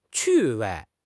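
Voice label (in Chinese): "趣味", Chinese; HSK6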